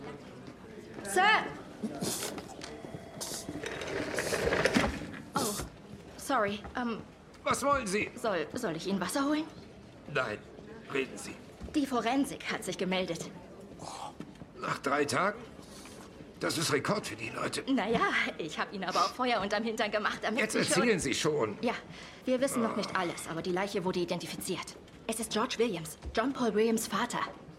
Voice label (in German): Ambitionierte junge Polizistin; Synchron